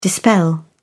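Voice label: "dispel", English, British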